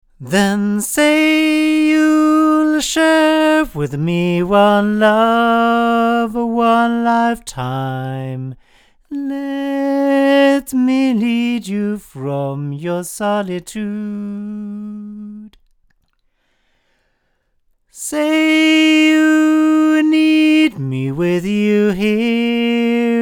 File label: MXL V77 male